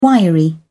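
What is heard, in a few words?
"wiry", English, British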